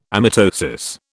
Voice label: "amitosis", British